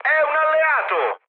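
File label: Italian player friendly fire mood med, speech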